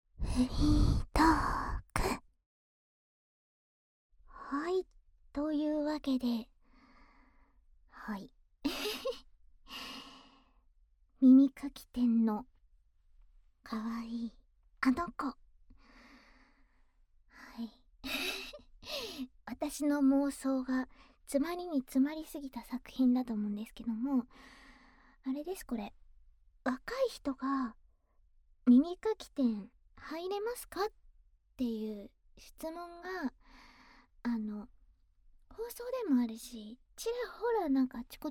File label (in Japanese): 放送局 フリートーク